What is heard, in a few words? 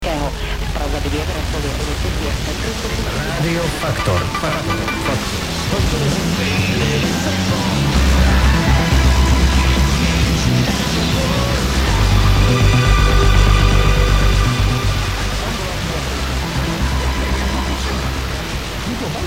radio id only; radio factor